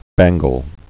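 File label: "bangle", spoken word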